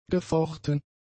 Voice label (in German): "gefochten", German; adjectives